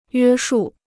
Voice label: "约束", Chinese

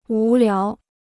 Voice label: "无聊", Chinese

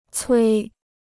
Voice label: "催", Chinese

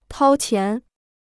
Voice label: "掏钱", Chinese

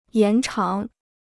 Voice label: "延长", Chinese

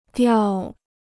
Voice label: "掉", Chinese

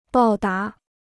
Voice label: "报答", Chinese

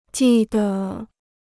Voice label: "记得", Chinese

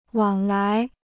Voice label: "往来", Chinese

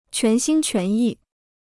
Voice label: "全心全意", Chinese

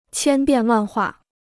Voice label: "千变万化", Chinese

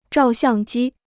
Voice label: "照相机", Chinese

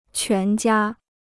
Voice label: "全家", Chinese

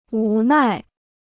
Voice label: "无奈", Chinese

